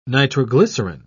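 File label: "nitroglycerin", British English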